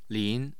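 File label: "lin2", Mandarin Chinese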